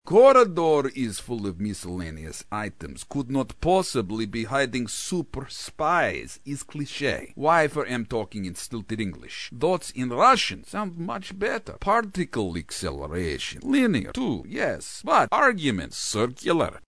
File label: Technician, Russian, Off-beat; animation